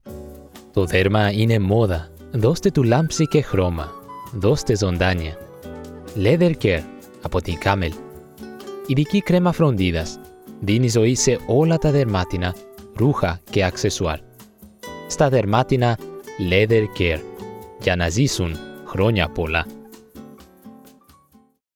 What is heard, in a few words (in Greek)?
greek commercial